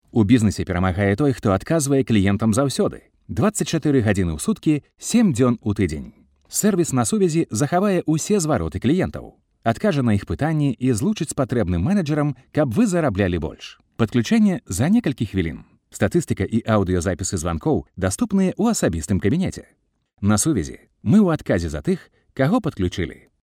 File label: locutor bielorruso bielorusian voiceover